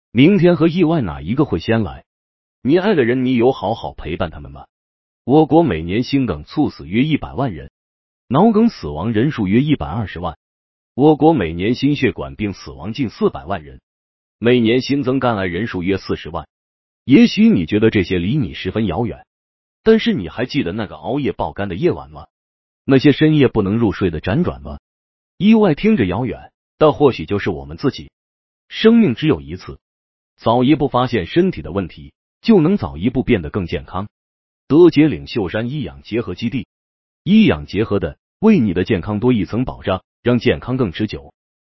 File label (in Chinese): 男声低沉叙事电影感配音